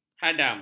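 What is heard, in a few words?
"hadam", Malay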